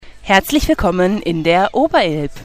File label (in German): deutsch